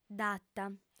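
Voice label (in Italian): "data", lingua sarda, female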